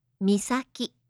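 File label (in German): "Misaki", japanische Mädchennamen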